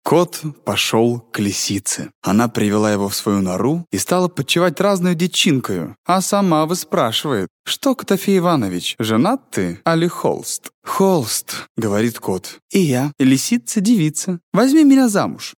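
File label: Russian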